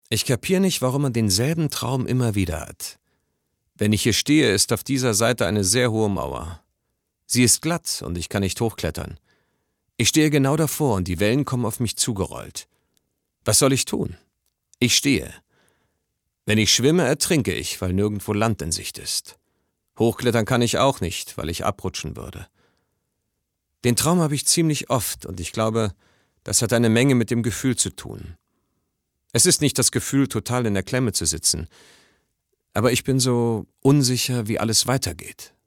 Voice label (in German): synchron